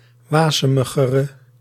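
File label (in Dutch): "wasemigere", Dutch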